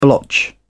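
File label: "blotch", British English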